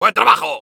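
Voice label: Soldier good job, Spanish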